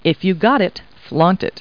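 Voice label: woman; Talking Clip Words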